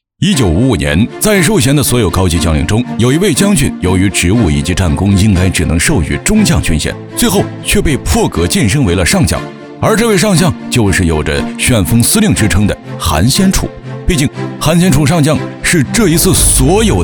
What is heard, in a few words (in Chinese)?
男 宣传片; 韩先楚